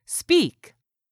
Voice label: "speak", English; 3000 words